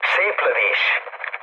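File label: player killed enemy, mood med, Hungarian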